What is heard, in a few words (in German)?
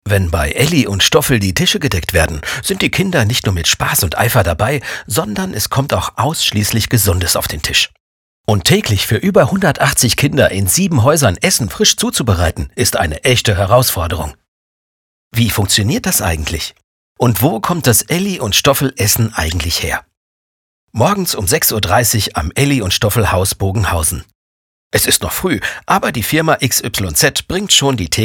Reportage; ellystoffl; kidsnett